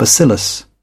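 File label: "bacillus", British English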